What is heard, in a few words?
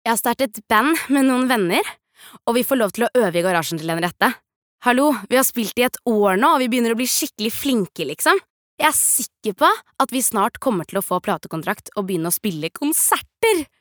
no dub young adult